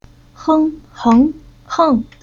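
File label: "Heng", Chinese syllable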